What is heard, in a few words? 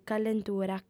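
"callentura", female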